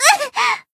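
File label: damaged, Japanese; tsukiha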